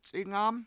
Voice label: "c$ingAm" plate, Washo

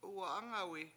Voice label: "Uwa7Angawi", Washo; thanks you did good

